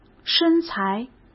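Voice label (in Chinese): "身材", Mandarin Chinese; chinese flashcards; hsk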